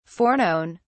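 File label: "foreknown", British English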